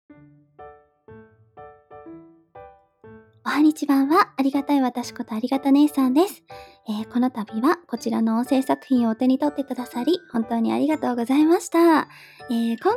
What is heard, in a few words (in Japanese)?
フリートーク, ASMR